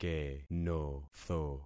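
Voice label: "kenotho", concatenated syllables; Affixing experiment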